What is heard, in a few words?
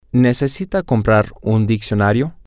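Spanish, male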